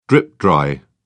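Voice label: "drip-dry", British English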